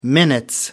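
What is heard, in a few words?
"minutes", British English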